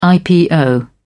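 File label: "ipo", English, British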